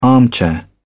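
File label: "armchair", British English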